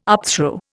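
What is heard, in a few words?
"upthrow", Indian English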